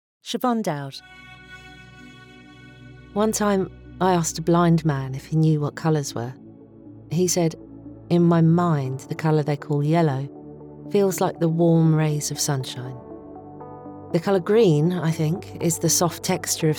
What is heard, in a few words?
Cool Woman Reel